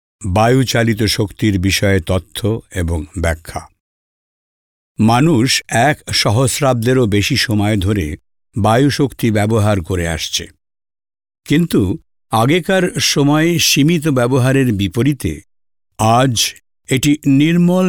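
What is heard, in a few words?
Bengali, male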